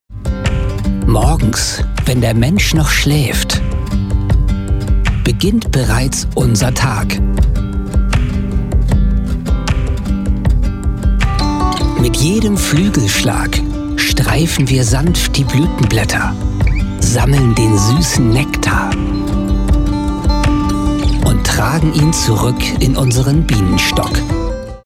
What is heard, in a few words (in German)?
Werbung Bio Honig